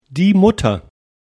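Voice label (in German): "mutter", German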